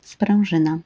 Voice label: "sprEZyna", Polish

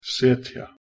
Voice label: "setja", Proto Germanic

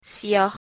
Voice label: "siyah", Persian

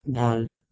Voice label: "dal", Proto-Germanic

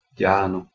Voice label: "jaanu", Sanskrit

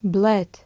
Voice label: "blet", Latvian

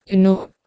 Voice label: "ynogh", Middle English

